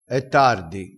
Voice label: "av", crespinese

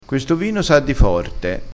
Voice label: "forte", vocabolario dialettale

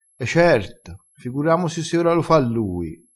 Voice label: "si", crespinese

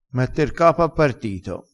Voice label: "mette r capo", crespinese

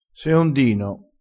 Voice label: "seondino", dialettale

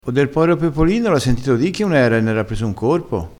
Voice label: "corpo", crespinese

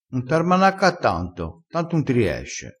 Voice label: "armanaccassi", crespinese

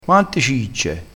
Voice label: "cicce", crespinese

